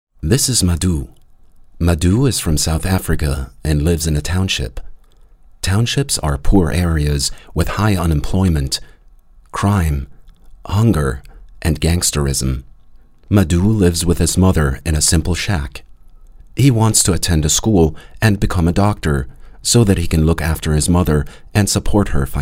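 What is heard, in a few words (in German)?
US English, Kommentar Neutral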